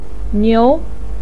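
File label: "niu", Mandarin pinyin, second tone; 吹; chuī niú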